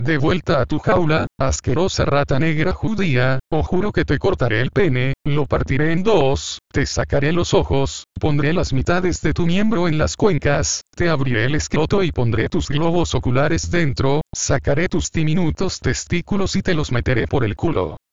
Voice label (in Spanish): insulto